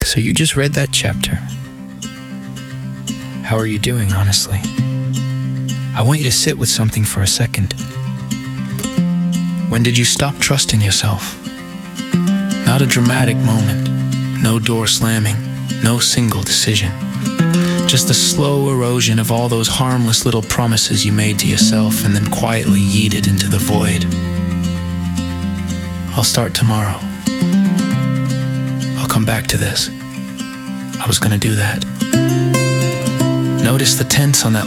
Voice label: Male; chapter 12 audio